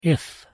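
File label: "if", English pronunciation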